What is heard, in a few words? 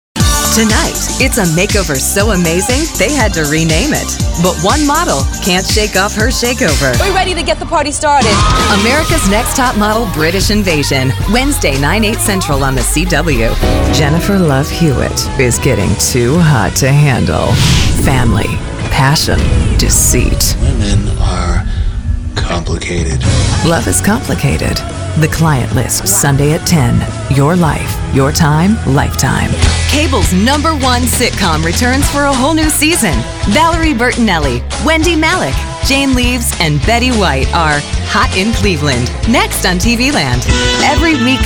Promos Voiceover